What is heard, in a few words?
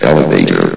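"elevator", male synthesized voice; vox; source engine; hl1; video games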